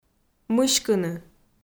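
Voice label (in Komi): "мыськыны", Komi, female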